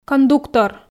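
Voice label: "кондуктор", Komi, female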